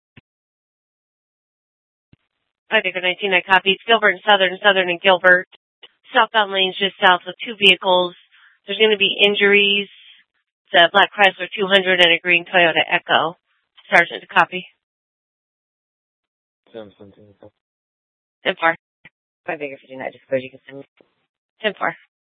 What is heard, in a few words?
Mesa PD Fiesta Dispatch